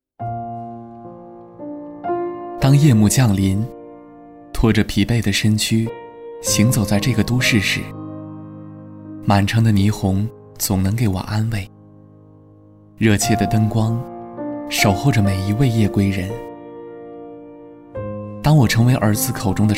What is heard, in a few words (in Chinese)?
男 独白 自然 走心; 供电公司微电影